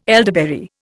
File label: "elderberry", Indian